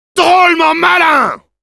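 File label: positive vocalization, French; Demoman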